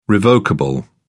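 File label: "revocable", British English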